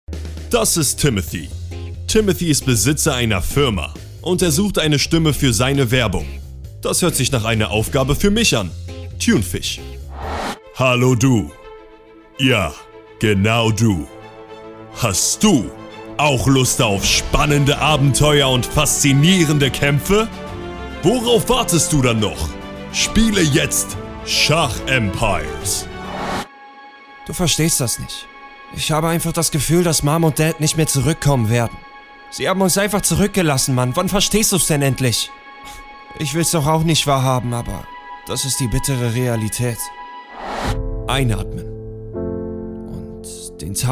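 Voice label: German, male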